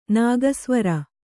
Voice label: "ನಾಗಸ್ವರ", Kannada; nāga svara